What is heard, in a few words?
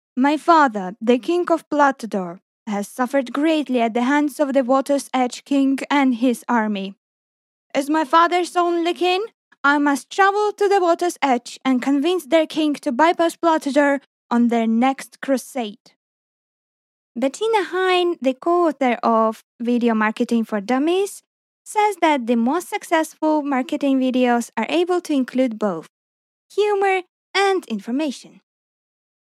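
Russian, Accented English; GoLocalise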